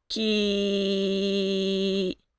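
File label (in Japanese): "き", Japanese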